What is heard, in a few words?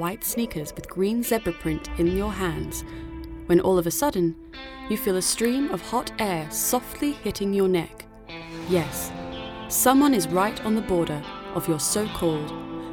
female british english outlet comercial